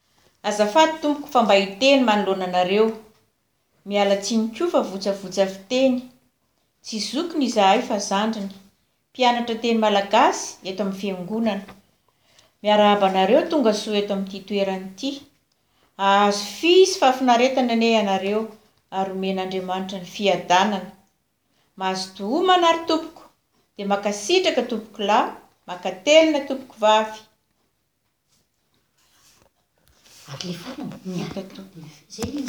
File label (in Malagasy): Vocal kabary fohy